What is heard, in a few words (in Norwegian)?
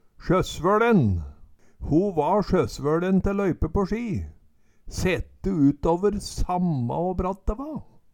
sjøssvøLen, Numedalsmål; SjøssvøLin er og bruka.